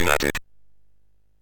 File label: MOS6581R3, Electronic Speech Systems